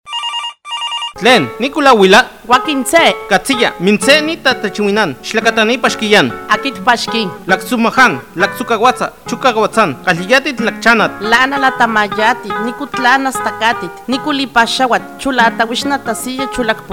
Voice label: Lengua Totonaco; FI Papantla

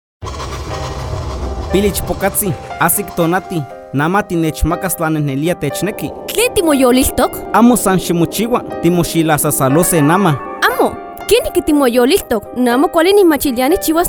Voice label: Lengua Nahuatl Huasteca; FI Chicontepec; Violencia contra las mujeres

